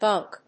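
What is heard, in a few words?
"gunk", English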